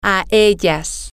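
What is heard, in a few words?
Spanish, female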